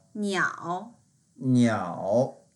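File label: "niao3", Mandarin Chinese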